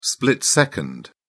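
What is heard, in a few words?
"split-second", British English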